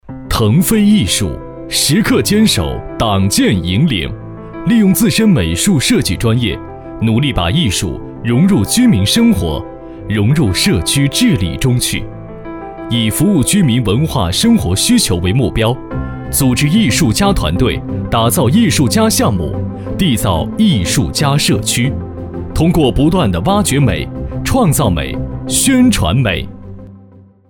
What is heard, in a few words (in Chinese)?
男 宣传片; 腾飞艺术